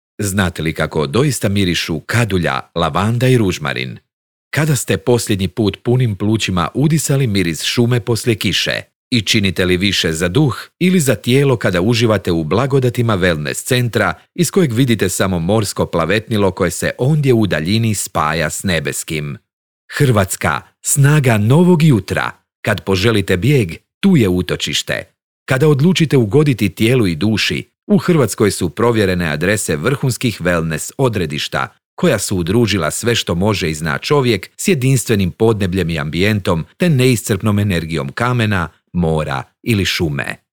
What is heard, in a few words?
Narration Comp Limit